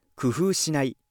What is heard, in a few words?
male